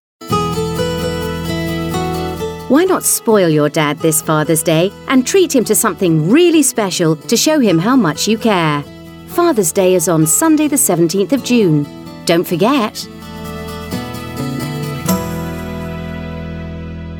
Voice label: fathers day, female